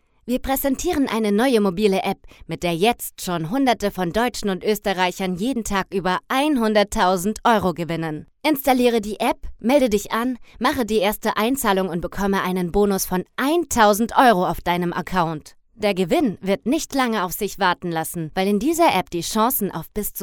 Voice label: German female